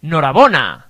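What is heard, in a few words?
congratulation, Scottish Gaelic; gcompris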